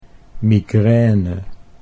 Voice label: "migraine", Dutch